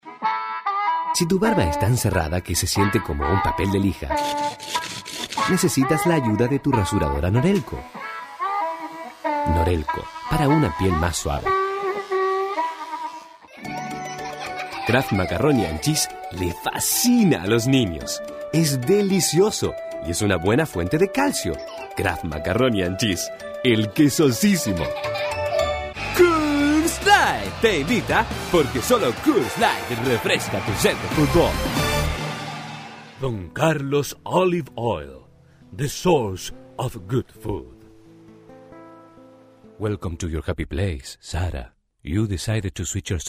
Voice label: spanish commercials